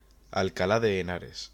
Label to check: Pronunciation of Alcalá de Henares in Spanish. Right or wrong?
Right